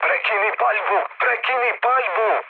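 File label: Serbian player friendly fire mood low; speech; War Thunder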